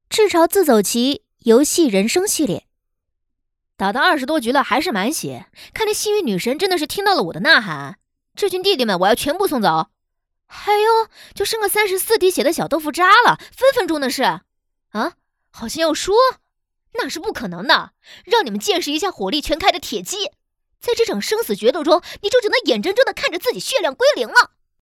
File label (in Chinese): 女汉子; 赤潮自走棋